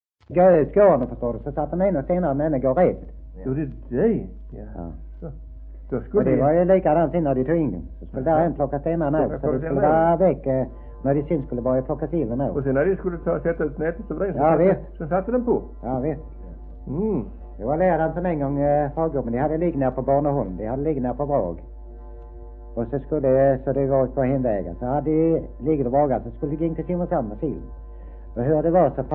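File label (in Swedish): Degeberga Skåne, svenska sagor dialekter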